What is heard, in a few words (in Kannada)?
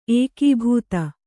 "ಏಕಾಬ್ದೆ", Kannada